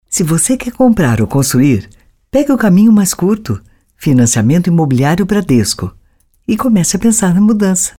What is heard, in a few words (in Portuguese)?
CLÁSSICA; BRADESCO FINANCIAMENTO